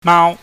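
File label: "maau", Cantonese, high level tone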